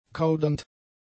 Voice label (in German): "kaudernd", German; adjectives